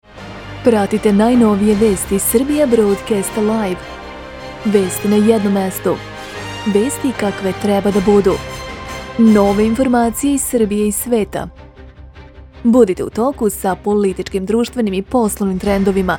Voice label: Serbian news